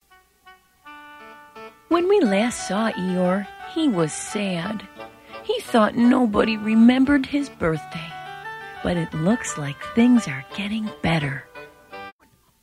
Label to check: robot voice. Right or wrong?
Wrong